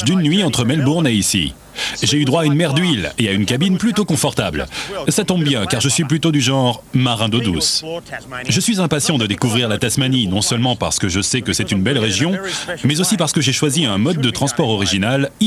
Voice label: DOC Railway adventures Australie